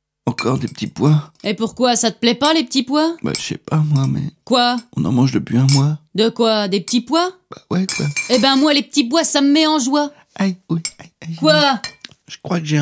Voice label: comédie; Flunch

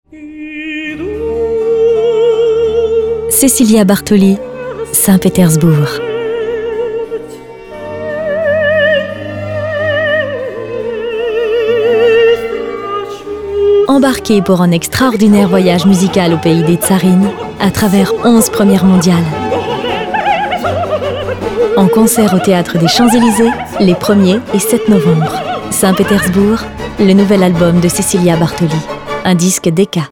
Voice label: Radio; Radio Classique; Universal; Decca; Bartoli

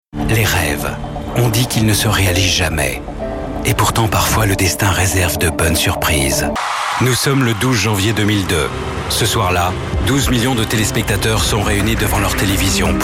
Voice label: Voix Off Doc; Star Academy

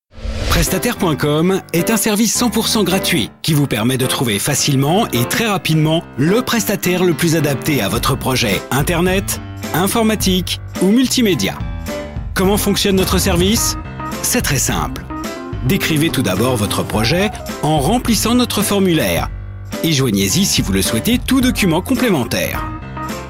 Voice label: Voix Off Corporate

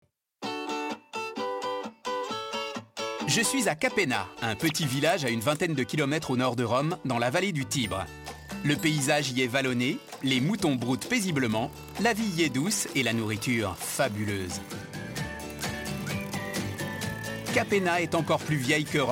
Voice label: DOC VOIX JEUNE PETILLANTE; Recettes de mes grands mères narration

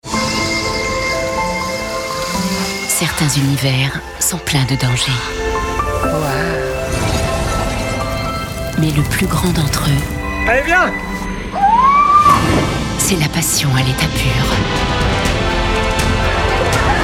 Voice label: SPOT PUB CINE; VISIO

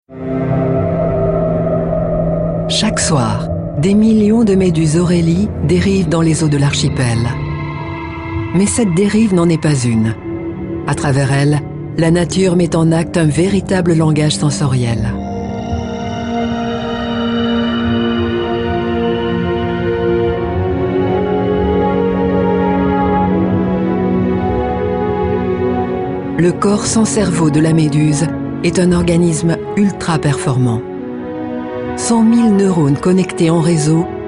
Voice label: Documentaire Narration; Ushuaia

